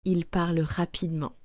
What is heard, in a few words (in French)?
"Ils parlent rapidement", French